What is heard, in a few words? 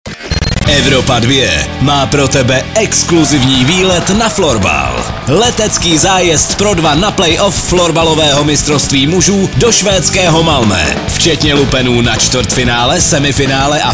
liner